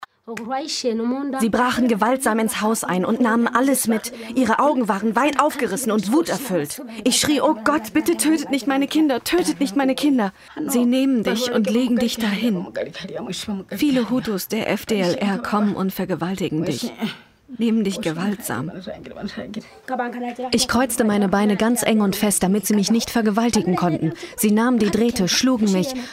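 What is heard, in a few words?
German VO; Voices Of Violence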